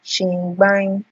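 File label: "shingbain", Nigerian Pidgin, female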